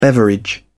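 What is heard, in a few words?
"beverage", British English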